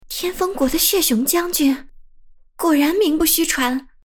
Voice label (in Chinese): 少女音